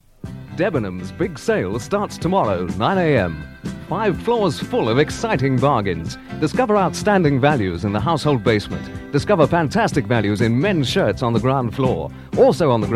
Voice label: Radio Advert; Radio Hallam; Debenhams